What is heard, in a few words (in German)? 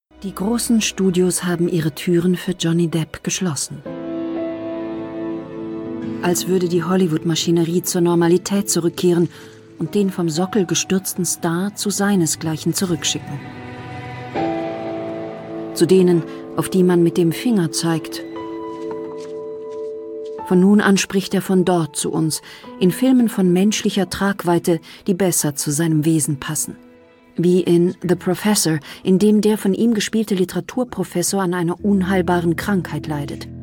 Voice Over, frauen; ARTE; Johnny Depp